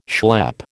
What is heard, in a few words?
"schlep", American